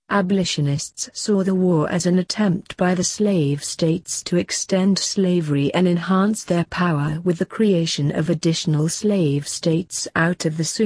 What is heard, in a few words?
Read Aloud model answer, pte speaking